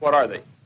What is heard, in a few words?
what are they announcer; questions